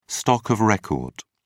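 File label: "stock of record", English, British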